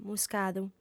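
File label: "muscadu", female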